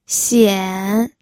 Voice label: "xian3", pinyin